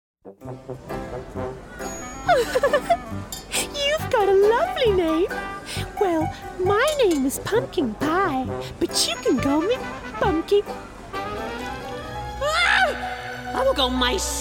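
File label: Italian, female, English, animation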